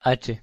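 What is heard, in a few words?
"h" Spanish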